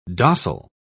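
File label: "dossel", British English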